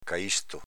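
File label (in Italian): "caìsto" Veneto dialect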